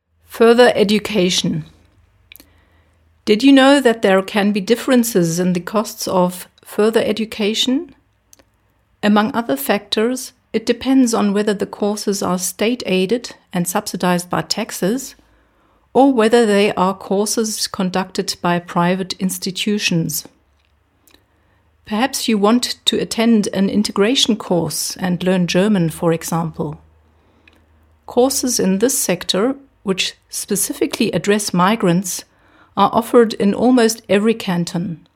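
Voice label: English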